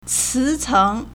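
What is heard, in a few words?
"cícéng", Chinese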